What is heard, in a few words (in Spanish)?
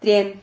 "trien", Silabas